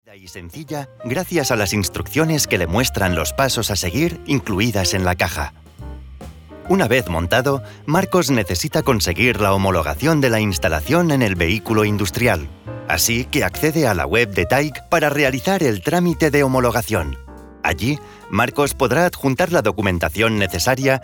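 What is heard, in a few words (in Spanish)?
e-learning, Spain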